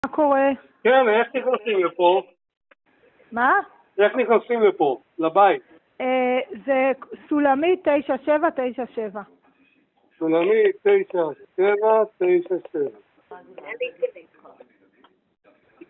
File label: Call recording